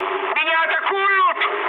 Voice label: aircraft damaged, mood med, speech ru; War Thunder